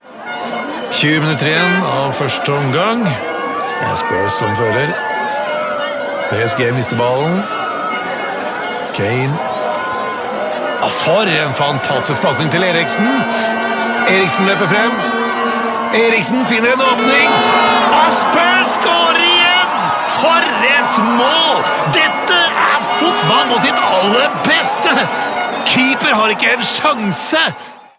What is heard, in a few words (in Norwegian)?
Norsk manlig sportkommentator